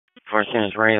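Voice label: Wake Forest Police Dispatch, p25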